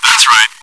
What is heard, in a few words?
cheer; svencoop